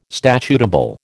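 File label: "statutable", American English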